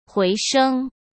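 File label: "回升", Mandarin Chinese; chinese flashcards; hsk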